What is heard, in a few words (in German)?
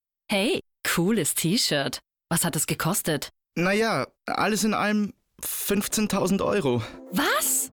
Frau